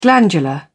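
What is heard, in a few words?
"glandular", English, British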